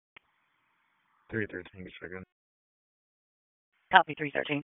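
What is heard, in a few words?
Raleigh Police Northeast District Dispatch, p25; wake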